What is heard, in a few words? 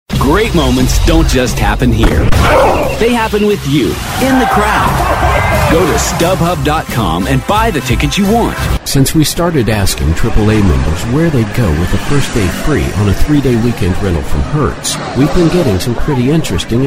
commercial